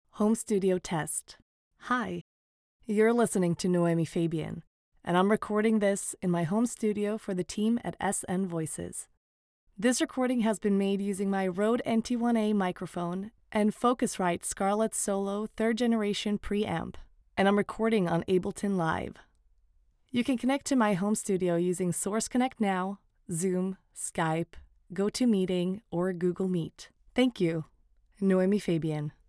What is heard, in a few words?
Home Studio Sample